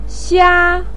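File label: "xia", Mandarin pinyin, first tone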